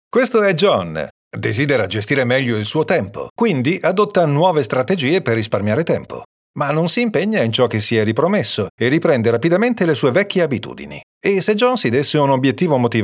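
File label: Italian, male, e-learning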